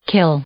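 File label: "kill", English, female; verb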